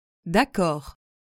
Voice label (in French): "d'accord", French